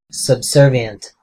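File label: "subservient", US English; pronunciations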